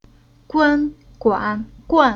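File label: "Guan", Chinese syllable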